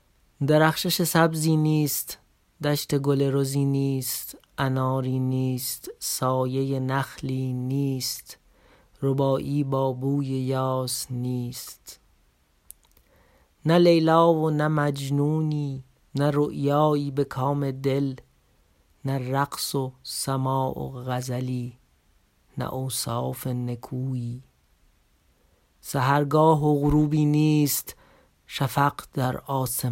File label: Gedicht Farsi; Margret Kreidl